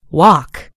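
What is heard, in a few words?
"walk", British English; gcompris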